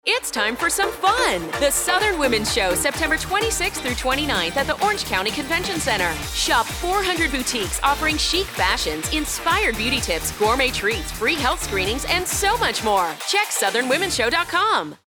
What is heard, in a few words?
Radio Spot; Southern Womens Show